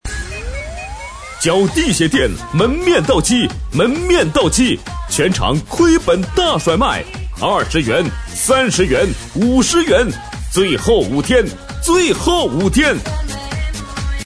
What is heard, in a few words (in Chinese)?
鞋店 促销; 脚帝